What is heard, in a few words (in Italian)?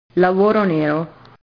lavoro nero, italian